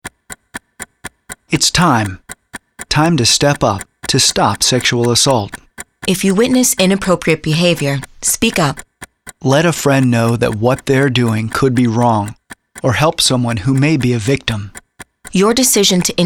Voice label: Radio PSA